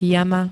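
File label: "jama", Slovak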